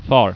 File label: "far", Dutch